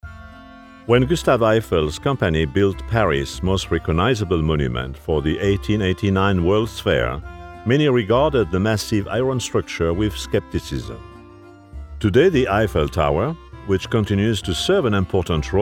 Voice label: English narration